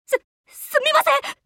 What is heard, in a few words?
skill jp; chasers; bellona